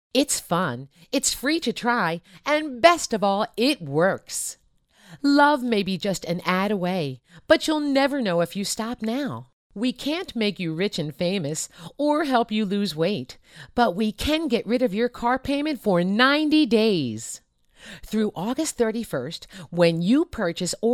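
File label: English USA female, english american voice over talent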